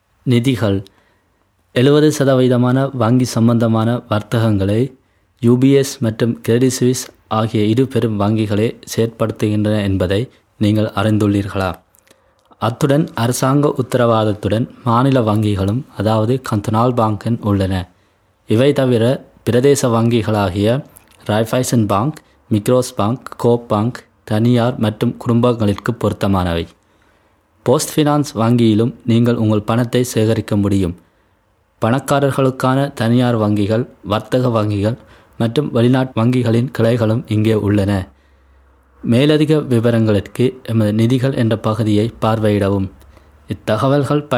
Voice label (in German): tamil